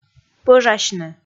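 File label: "пӧжасьны", Komi, female; kpv audio dict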